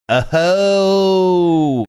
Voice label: drawn out uh ho